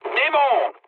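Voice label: French enemy detected mood med; War Thunder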